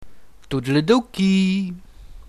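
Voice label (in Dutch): "Toedeledoki", Dutch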